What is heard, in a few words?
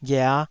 "ghia5", Taiwanese syllable